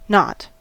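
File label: "knot", US English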